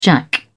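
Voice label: "jack", British English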